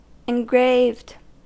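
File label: "engraved", US English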